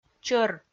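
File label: "чӧр", Komi, female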